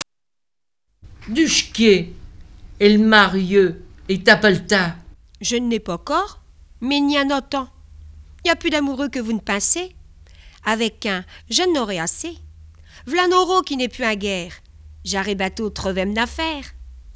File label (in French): marceline dialogue, langue picarde